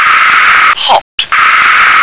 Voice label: "hot", English